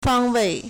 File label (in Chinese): "fang1wei4", Chinese